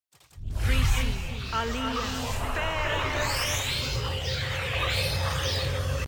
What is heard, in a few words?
spell corrupt voice; baldursgate